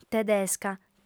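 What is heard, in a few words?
"tedesca", lingua sarda, female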